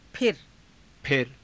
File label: "phira", Hindi